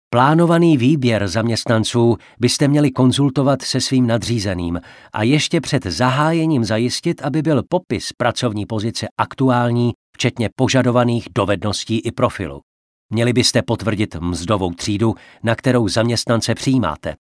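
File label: Male Czech e-learning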